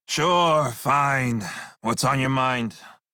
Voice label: Crew Follow Male en; Dialog; Warframe; Railjack Crew; Red Veil